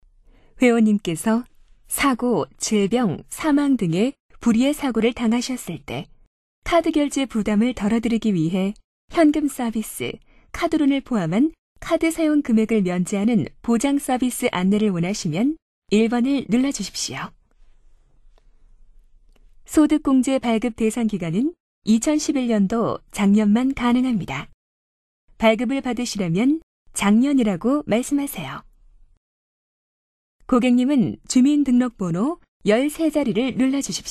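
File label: Korean female; ARS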